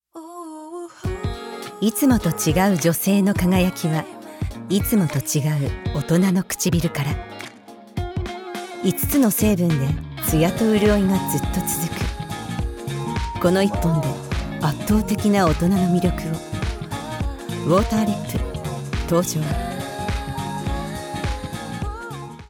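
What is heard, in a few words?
japanese promos, female Japanese; voice actor; Documentaries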